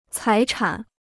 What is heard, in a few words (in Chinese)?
"财产", Chinese